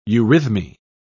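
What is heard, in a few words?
"eurythmy", British English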